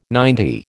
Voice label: "ninety", American

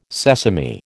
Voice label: "sesame", American

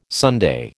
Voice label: "Sunday", American

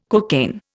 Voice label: "cocaine", Indian

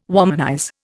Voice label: "womanize", Indian

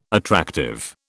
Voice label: "attractive", British

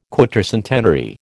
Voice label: "quatercentenary", American

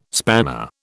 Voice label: "spanner", British

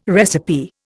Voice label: "recipe", Indian

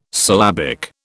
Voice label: "syllabic", British

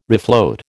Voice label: "refloat", American

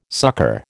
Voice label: "succor", American

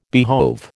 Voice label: "behove", American

